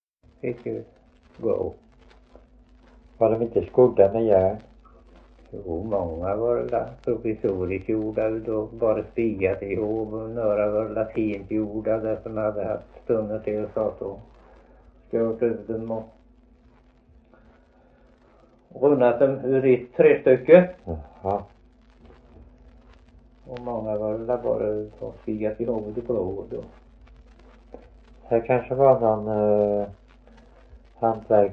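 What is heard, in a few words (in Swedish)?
Värö Halland, Svenska sagor dialekter; Folkminnen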